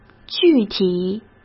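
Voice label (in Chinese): "具体", Mandarin Chinese; hsk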